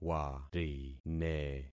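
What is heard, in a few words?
"warine", concatenated syllables; Affixing experiment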